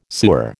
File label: "sewer", American